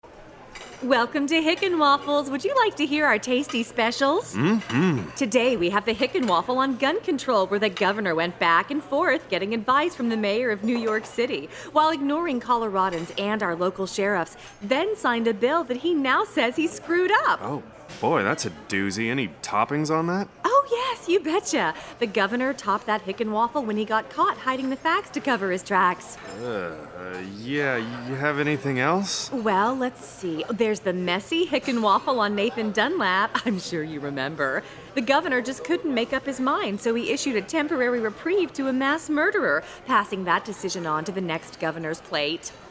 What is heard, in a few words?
Radio; RGA Hickenwaffles